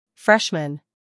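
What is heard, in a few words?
"scandal", American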